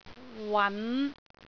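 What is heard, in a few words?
"wan5", Cantonese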